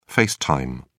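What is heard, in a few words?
"face time", British English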